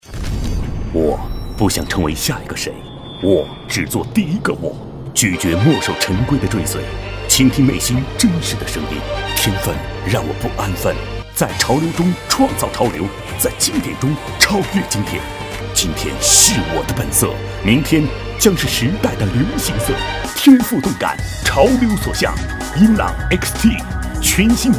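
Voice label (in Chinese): 【广告】男-硬朗; 英朗宣言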